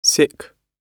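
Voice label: "sick", British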